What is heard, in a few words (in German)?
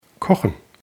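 "kochen", German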